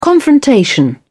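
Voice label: "confrontation", British English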